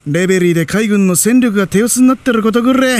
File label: voice, dubbing